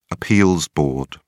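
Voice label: "appeals board", British English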